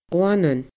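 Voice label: "gleannan", Scottish Gaelic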